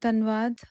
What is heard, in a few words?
punjabi; POP UP Zagreb